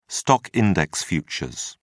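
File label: "stock-index futures", English, British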